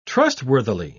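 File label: "trustworthily", British English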